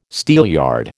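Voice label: "steelyard", American English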